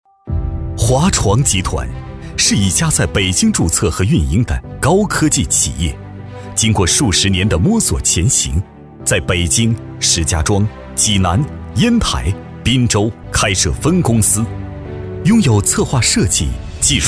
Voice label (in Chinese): 男 宣传 企业集团宣传